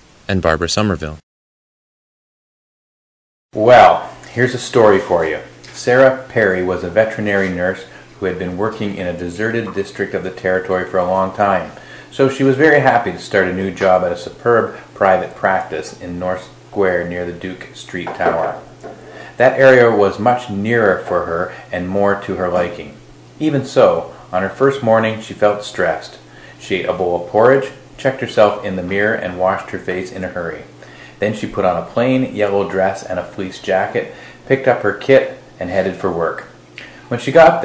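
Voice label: ontario, International Dialects of English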